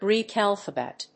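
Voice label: "Greek alphabet", English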